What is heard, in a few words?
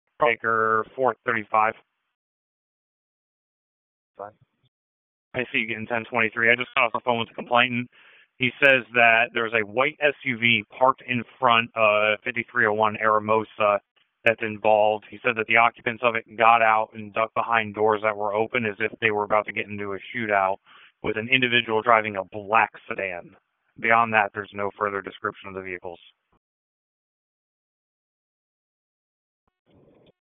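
Police Southeast District Dispatch; Raleigh; p25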